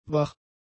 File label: "wach", German; adjectives